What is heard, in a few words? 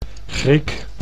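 "Griek", Dutch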